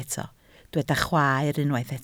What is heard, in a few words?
"chwaer", Welsh